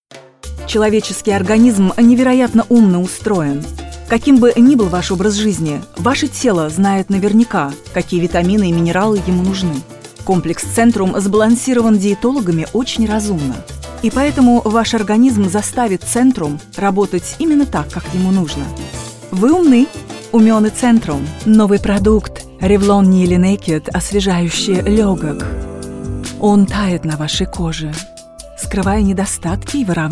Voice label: Russian, Female, 30s-40s; Eastern European